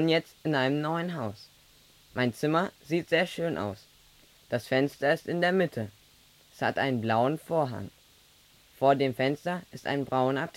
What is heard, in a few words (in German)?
Hörübung Wiederholung; Der neue Schulbus 3